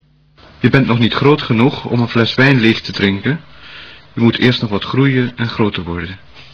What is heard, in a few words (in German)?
wflaem